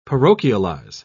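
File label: "parochialize", British English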